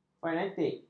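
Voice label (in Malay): "fanatik", Malay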